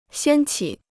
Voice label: "掀起", Chinese

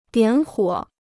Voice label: "点火", Chinese

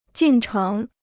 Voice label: "进程", Chinese

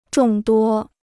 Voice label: "众多", Chinese

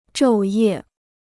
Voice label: "昼夜", Chinese

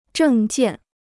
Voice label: "证件", Chinese

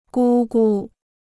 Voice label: "姑姑", Chinese

